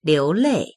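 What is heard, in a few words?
"liu2lei4", Mandarin Chinese; 流泪; liúlèi; 涙を流す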